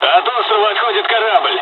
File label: dialogs russian; War Thunder